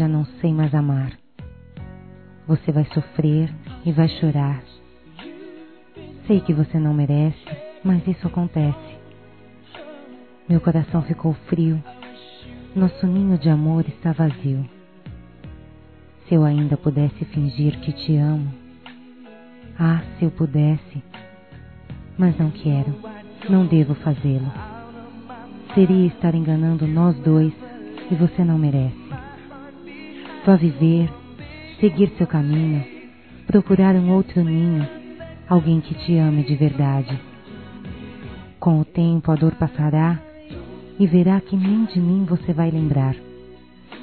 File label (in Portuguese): VE SE ME ESQUECE, fem